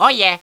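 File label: "oh yeah", male; DS; ML BOWSERS INSIDE; Luigi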